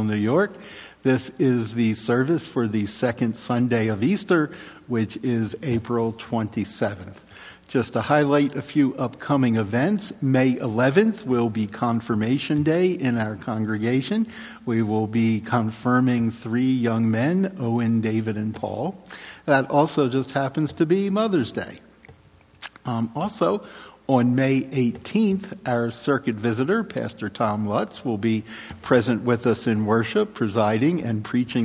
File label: Welcome and Announcements